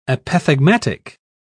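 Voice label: "apothegmatic", British English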